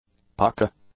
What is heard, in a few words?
"pukka", english; adjectives